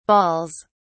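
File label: "baals", British English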